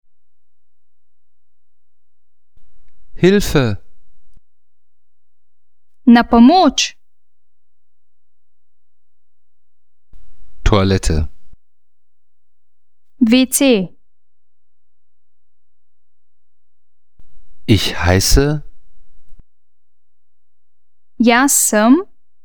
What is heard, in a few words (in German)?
slowenisch